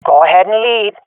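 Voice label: following friend, swat female; Counter Strike Model Pack; CS:GO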